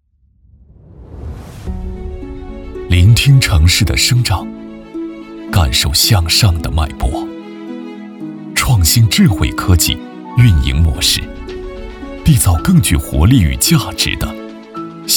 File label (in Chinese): 专题 企业 大气, 男; 合生创展